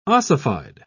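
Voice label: "ossified", British English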